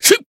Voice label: attack, jp